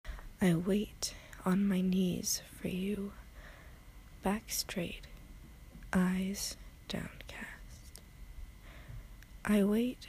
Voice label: Erotic Poetry, Very Short; F4M; F4A; F Sub; Anticipation